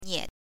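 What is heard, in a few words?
"nie", Mandarin pinyin, neutral tone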